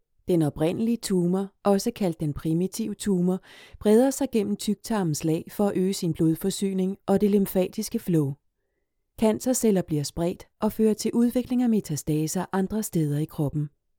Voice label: danish medical narration